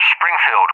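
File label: "Springfield", English, UK; CallSign; DCS; Allied Flight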